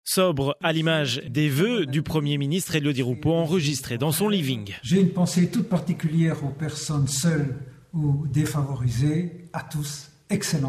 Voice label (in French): Reportage